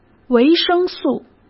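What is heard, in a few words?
"维生素", Mandarin Chinese; hsk; chinese-flashcards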